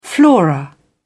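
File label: "flora", British English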